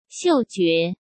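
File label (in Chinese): "嗅觉", Mandarin; chinese flashcards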